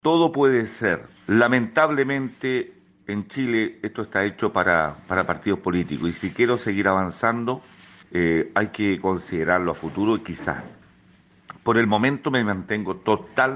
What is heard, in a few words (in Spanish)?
Cuña